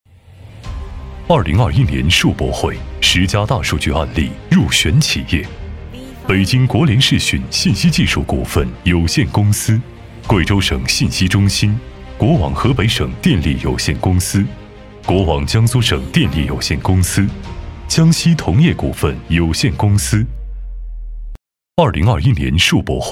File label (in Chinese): 男 颁奖专题 浑厚震撼; 磁性品质; 大气浑厚